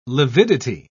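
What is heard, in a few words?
"lividity", British English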